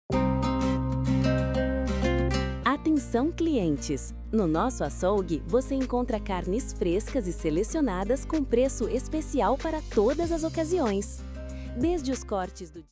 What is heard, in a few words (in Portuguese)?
Carnes Frescas e Selecionadas, vinheta comercial açougue